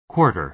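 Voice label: "quarter", American English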